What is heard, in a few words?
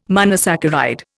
"monosaccharide", Indian